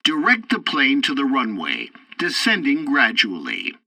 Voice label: tutorial landing preparation, english; War Thunder

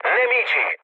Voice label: enemy detected mood low, speech it; War Thunder

